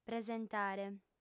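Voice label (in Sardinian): "presentare", female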